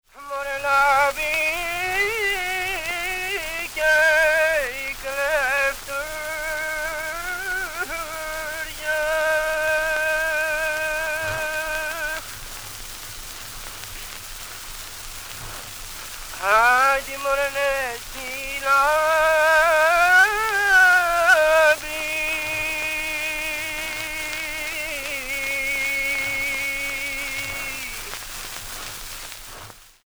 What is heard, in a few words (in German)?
Griechisch